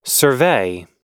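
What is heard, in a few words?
"survey", English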